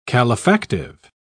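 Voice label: "calefactive", English, British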